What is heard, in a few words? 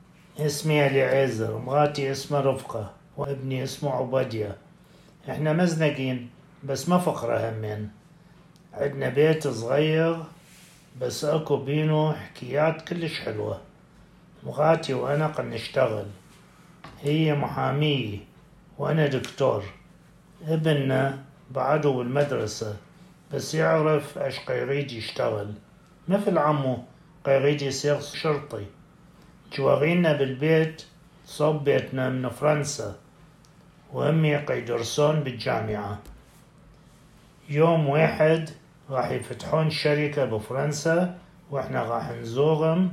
Baghdadi Judeo-Arabic; Audio recording 5.4.10 to accompany section on Lesson 5: Work, Studies and Place of Origin